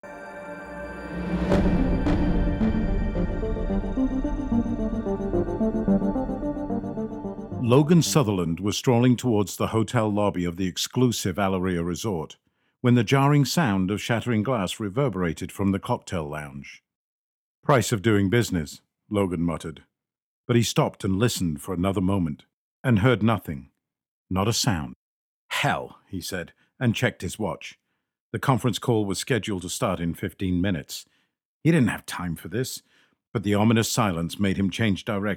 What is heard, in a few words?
male British voiceover audiobook